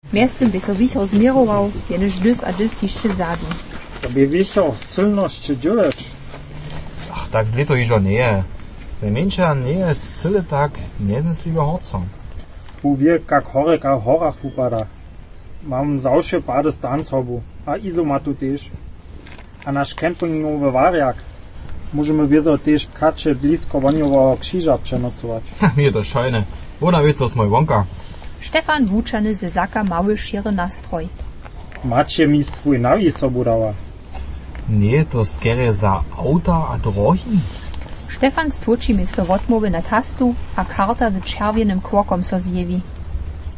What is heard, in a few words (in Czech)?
dialog